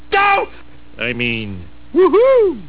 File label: "doh", "whoohoo"